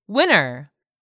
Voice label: "winner", English; 3000 words